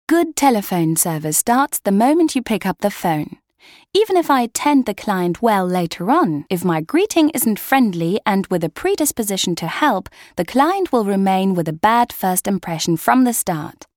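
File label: British elearning; sprecher; englisch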